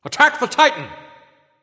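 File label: attack, male; smite; chiron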